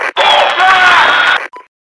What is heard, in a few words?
Radio Chatter Fall Back